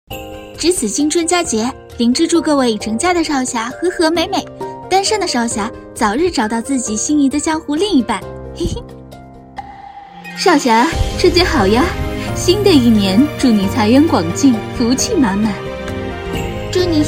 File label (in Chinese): 动画配音切换个角色